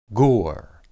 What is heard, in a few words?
"gur", Hebrew